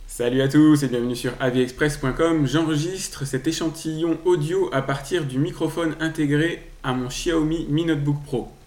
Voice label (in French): extrait audio micro xiaomi mi notebook pro